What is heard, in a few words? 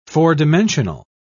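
"four-dimensional", English, British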